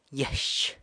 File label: "gyex", avocado, San Lucas Quiavini